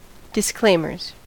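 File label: "disclaimers", US English; pronunciations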